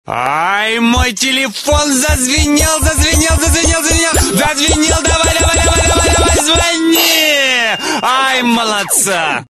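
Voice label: telefon zazvenel davaj davaj zvoni, phone rang come on call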